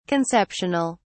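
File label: "conceptional", British English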